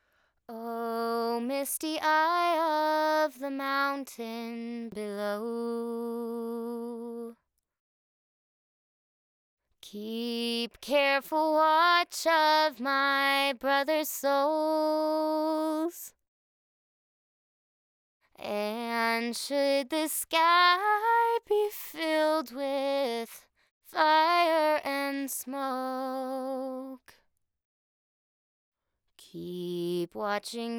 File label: Vox Formants Up; Formant Changes